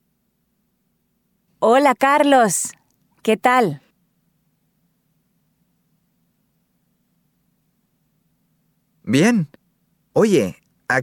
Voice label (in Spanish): pronunciacion oraciones; textbook; Chapter 4